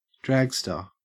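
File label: "dragster", Australian English; pronunciations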